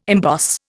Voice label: "emboss", Indian